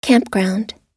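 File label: "campground", English; oxford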